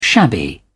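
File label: "shabby", British English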